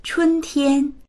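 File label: "chun1tian1", Mandarin Chinese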